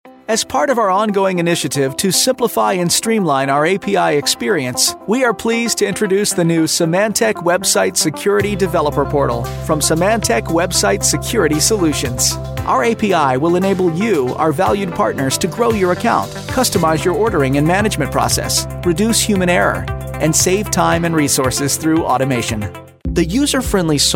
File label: american male corporate